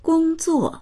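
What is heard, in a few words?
"gong1zuo4", Mandarin Chinese; gōngzuò; 工作; 仕事; 働く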